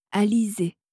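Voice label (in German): "Alizee", französische Mädchennamen, Aussprache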